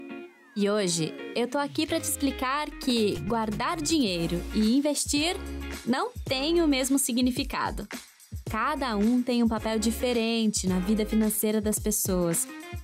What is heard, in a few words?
portuguese brazilian e-learning